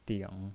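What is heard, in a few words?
"ding" Mandarin Chinese, third tone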